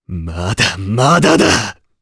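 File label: Vox Victory, Japanese; Dimael; kingsraid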